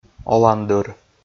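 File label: "оландыр", Komi, male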